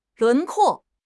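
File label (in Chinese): "轮廓", Chinese; HSK6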